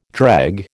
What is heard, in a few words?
"dreg", American